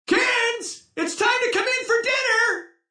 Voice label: Kids Dinner, Male; Talking Sound Effects; Sound Effects:Voice; VoiceBox